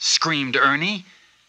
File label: screamed